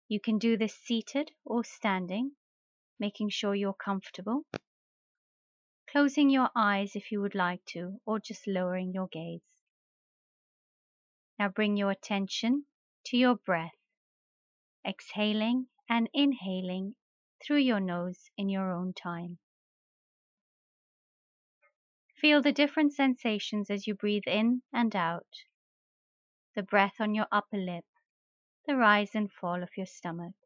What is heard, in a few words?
One minute breathing meditation